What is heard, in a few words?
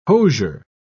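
"hosier", British English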